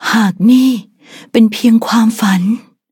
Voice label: defeat, th; cookie run